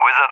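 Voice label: "Wizard", English speech; CallSign; Allied Flight; DCS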